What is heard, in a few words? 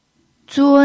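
"zun1", Mandarin Chinese pinyin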